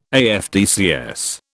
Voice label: "AFDCS", British